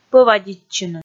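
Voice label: "пӧвадитчыны", Komi, female; kpv audio dict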